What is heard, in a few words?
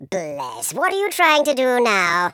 what the, Patronising